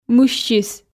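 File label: "мыссис", Komi, female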